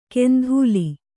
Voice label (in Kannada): "ಕೆಂಧೂಲಿ", Kannada